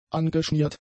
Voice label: "angeschmiert", German; adjectives